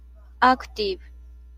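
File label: "active", Japanese, female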